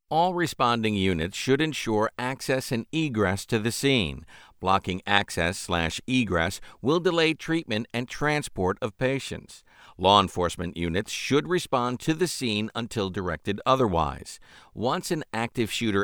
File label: ELearning